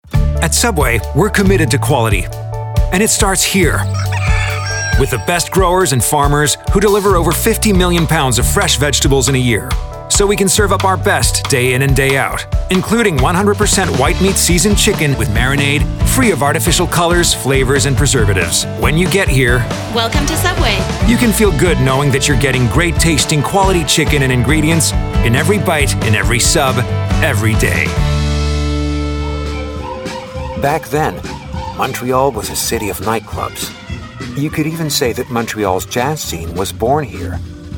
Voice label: English Voice